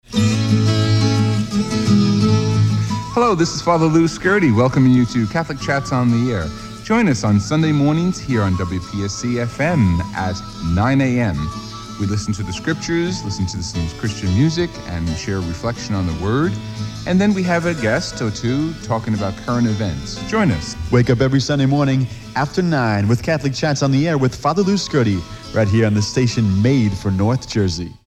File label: PROMO; Catholic Chats